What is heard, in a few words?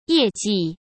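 "业绩", Mandarin Chinese; hsk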